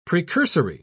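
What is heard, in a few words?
"precursory", British English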